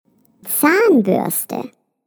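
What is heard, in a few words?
"Zahnbürste", German; TOOTHBRUSH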